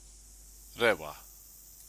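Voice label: "rewa", Māori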